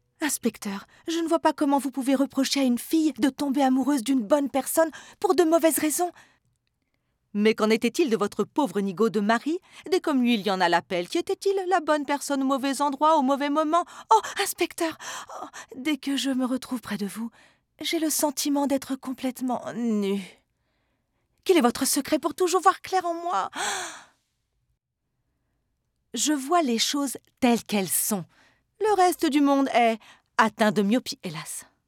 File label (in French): 2 voix qui se répondent